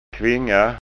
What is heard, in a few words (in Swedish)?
"kvinga", skanska